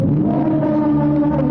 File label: angry; goku